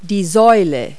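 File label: "pillar", English word; Multi-Lingual Talking Picture Dictionary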